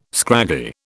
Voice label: "scraggy", British

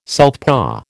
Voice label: "southpaw", American

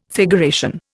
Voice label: "figuration", Indian

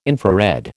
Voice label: "infrared", American

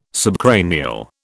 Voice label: "subcranial", British

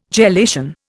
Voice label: "gelation", Indian

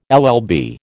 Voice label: "LL B", American

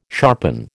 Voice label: "sharpen", American

